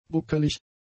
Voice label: "buckelig", German; adjectives

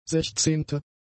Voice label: "sechzehnte", German; adjectives

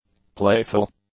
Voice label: "playful", english; adjectives

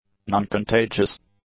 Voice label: "noncontagious", english; adjectives

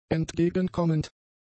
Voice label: "entgegenkommend", German; adjectives

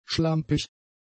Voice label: "schlampig", German; adjectives

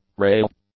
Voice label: "rail", English; nouns

